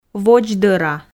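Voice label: "водздӧра", Komi, female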